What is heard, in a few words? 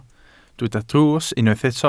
"drws", Welsh